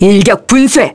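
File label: Vox Skill, Korean; Priscilla; kingsraid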